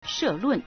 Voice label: "she4lun4", Chinese